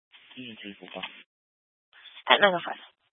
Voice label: Grand Junction Fire Department Dispatch

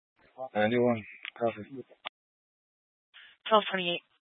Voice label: Police Department Dispatch, scanner

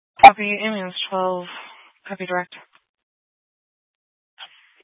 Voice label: Fire Department Dispatch, scanner

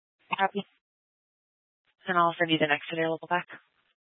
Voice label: Police Dispatch

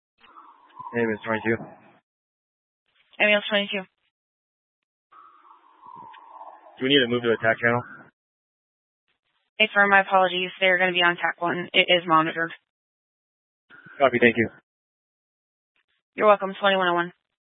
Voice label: Fire Dispatch

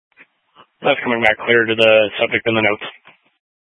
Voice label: Mesa County Sheriff Dispatch

